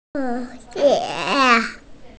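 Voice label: "butterfly", hearing impaired; hu2die2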